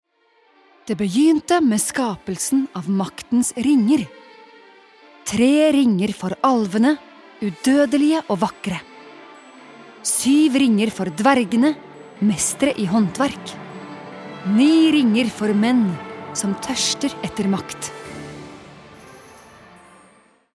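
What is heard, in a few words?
Norwegian movie trailer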